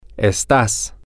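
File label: you are informal, Spanish, male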